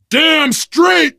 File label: taunt, biker; voice